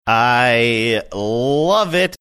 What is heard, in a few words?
drawn out i love it